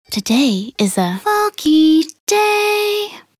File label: voc en weather foggy